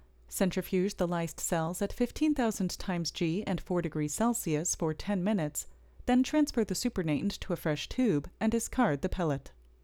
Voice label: Voiceover